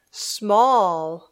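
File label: "small", English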